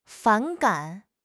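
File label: "反感", Chinese; HSK6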